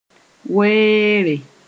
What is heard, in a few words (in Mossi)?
"weere", moore